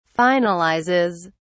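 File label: "finalizes", British English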